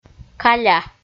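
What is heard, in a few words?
"каля" Komi, female; kpv audio dict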